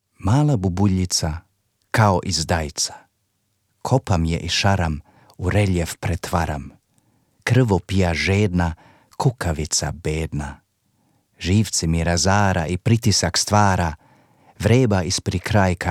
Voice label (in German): Gedicht Serbisch